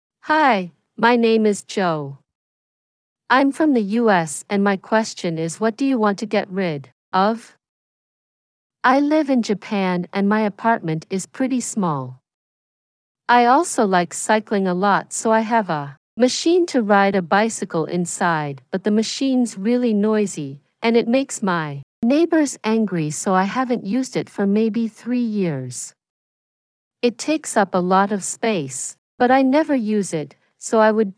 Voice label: Audiobook Voice